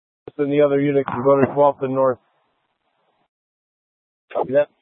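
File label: Incident Tactical 3, Scanner audio recording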